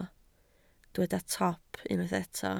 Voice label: "top", Welsh

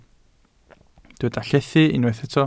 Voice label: "llethu", Welsh

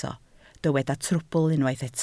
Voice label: "trwbl", Welsh

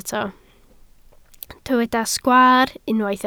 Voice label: "sgwaar", Welsh

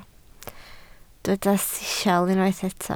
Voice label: "sisial", Welsh

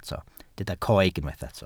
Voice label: "coeg", Welsh

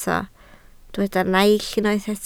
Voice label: "naill", Welsh

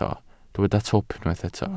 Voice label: "twp", Welsh

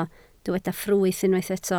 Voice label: "ffrwyth", Welsh

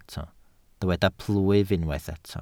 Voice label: "plwyf", Welsh

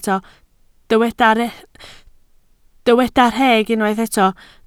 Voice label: "rheg", Welsh

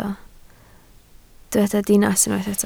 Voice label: "dinas", Welsh

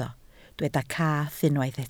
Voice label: "cath", Welsh

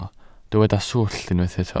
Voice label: "swllt", welsh

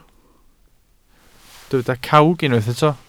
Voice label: "cawg", Welsh

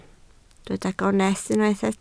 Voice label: "gonest", Welsh

